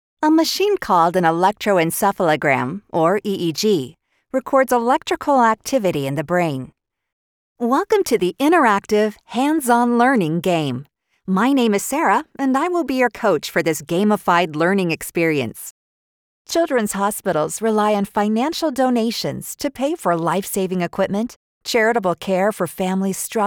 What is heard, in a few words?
Narration RAW